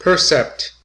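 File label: "percept" English, US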